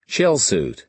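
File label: "shell suit", American English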